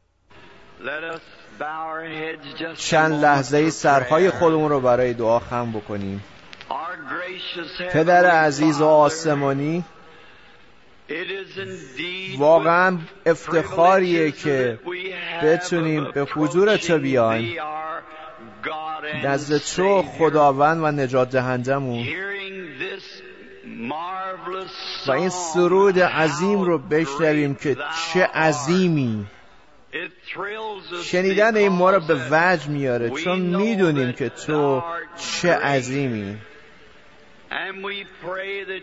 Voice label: Farsi Voice Over; My Life Story